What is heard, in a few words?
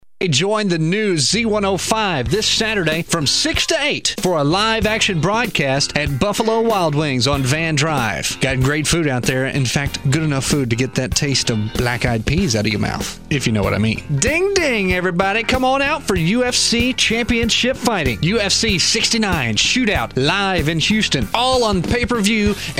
promos